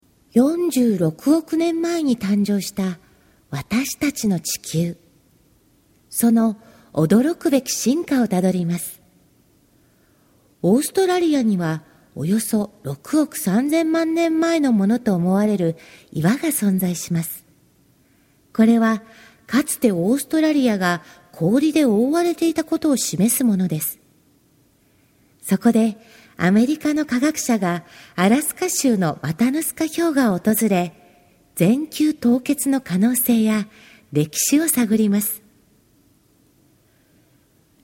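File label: Japanese narration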